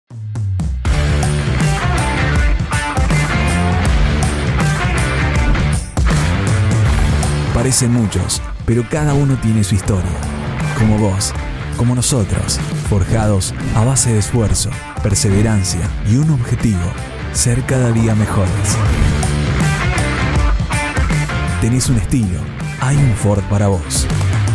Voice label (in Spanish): Spot del Sol Automotor